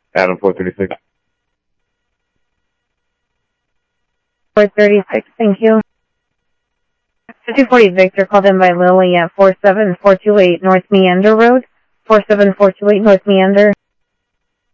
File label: scanner audio trunk